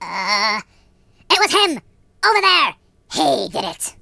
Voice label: "Oops", Pirate, voices; Hedgewars